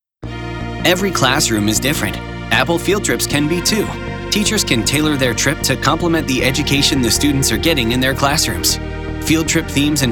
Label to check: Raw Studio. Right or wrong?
Wrong